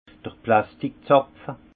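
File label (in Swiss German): "plasttik zapfe", Haut Rhin; vin; bouchon synthétique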